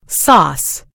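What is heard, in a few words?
"sauce", American English; gcompris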